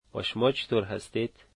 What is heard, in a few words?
and you, Dari